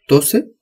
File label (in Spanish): "tose", Spanish